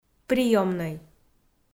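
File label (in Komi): "приёмнӧй" Komi, female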